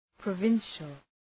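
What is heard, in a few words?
"provincial", English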